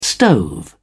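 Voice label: "stove", British English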